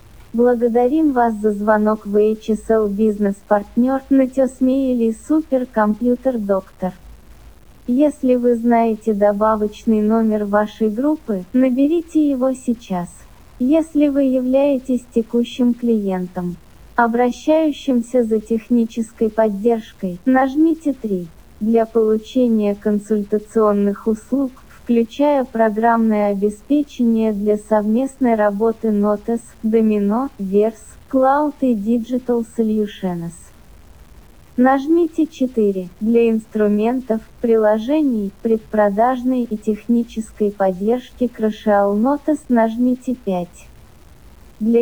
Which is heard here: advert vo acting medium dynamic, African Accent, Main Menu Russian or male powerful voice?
Main Menu Russian